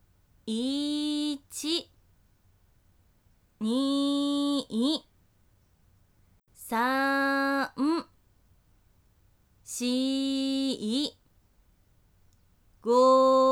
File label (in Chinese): 慢10次, 女生为你进行健身计数的语音; 仅计数